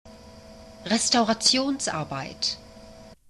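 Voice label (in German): "restaurationsarbeit", German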